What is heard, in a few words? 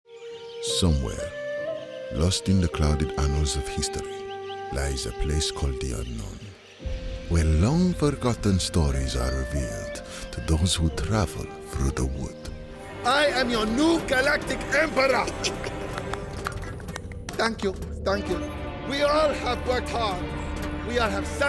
Animation; 20s-30s. Male. London. Home Studio.